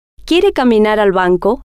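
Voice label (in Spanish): quiere Caminar Banco, spanish, female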